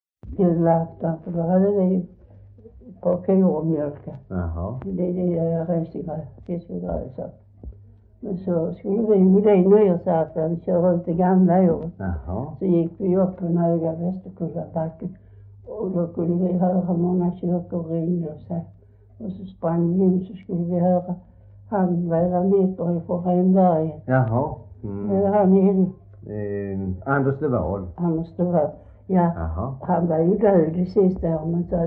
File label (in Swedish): S Svedala, dialekter; Folkminnen